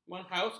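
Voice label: "menghauskan", Malay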